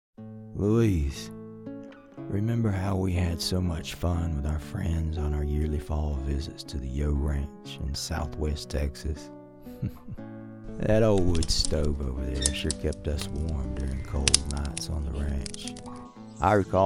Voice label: Southern english